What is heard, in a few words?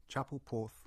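"Chapel Porth", English; place names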